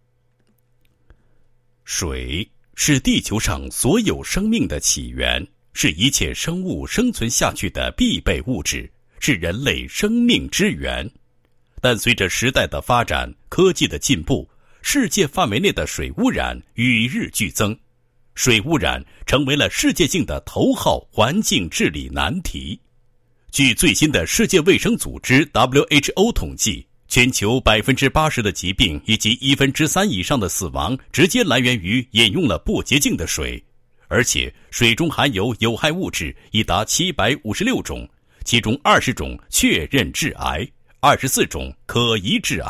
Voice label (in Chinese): 男 产品介绍; 纯净水器介绍; 普特纯净水器介绍